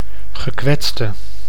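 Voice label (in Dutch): "gekwetste", Dutch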